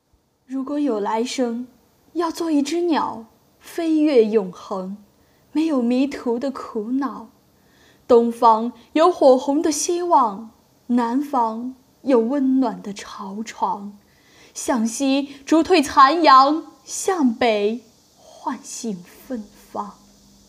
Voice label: 情感类

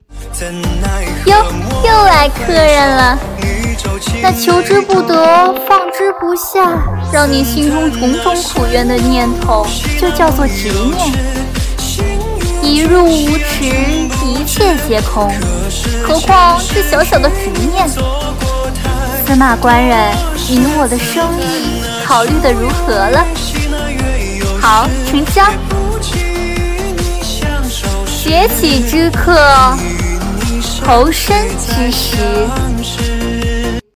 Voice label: 白蛇狐狸配音

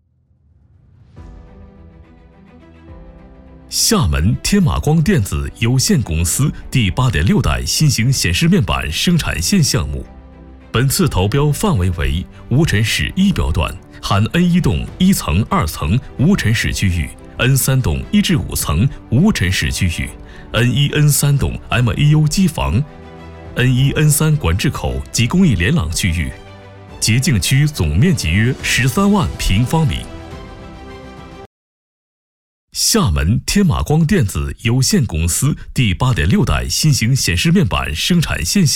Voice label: 课件; 厦门天马光电子有限公司

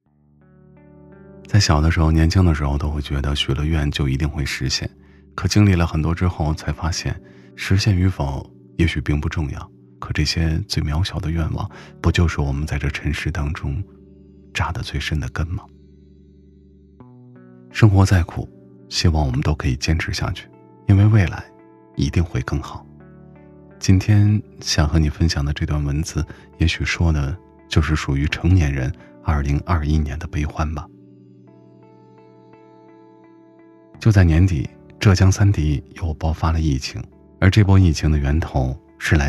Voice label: 温暖走心夜话美文心情配音

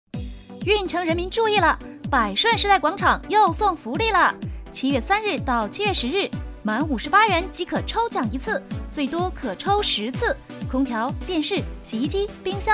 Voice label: 宣传促销; 时代广场

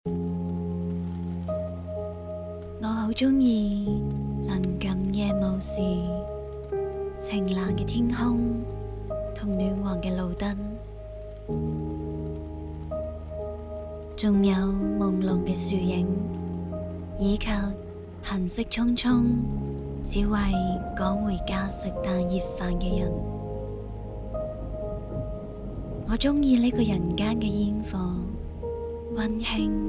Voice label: 粤语女声短视频旁白; 人间烟火气最暖是人心

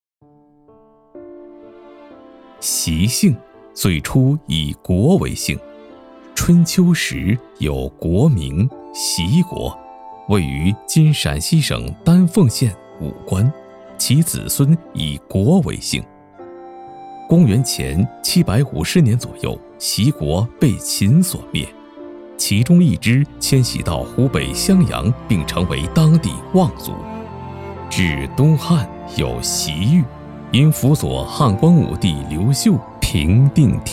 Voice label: 宣传片; 走近白梅

